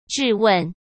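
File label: "质问", Mandarin Chinese; chinese-flashcards; hsk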